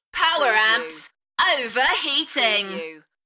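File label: UK female, Enthusiastic, DJ vocals; Power amps over heating; dance; beanstalk audio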